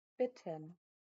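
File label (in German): "bitten", German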